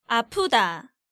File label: "apeuda", Korean